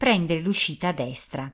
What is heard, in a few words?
Mw Exit Right, Italian female